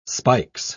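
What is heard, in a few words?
"spikes", British English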